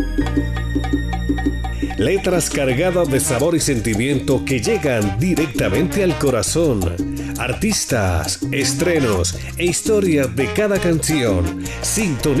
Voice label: promo salsa; CON AMOR